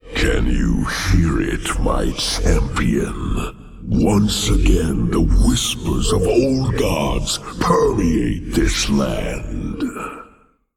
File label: Male Old God Bark; Y Shaarj